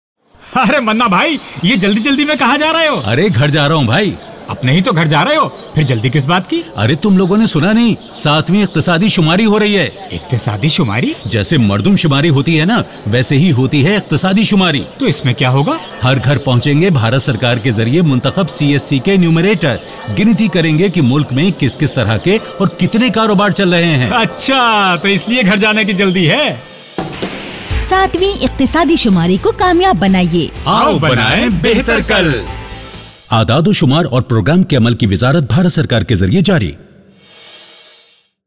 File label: Urdu Radio Spot; economic census; seventh economic census